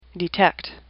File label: "detect", English; AWL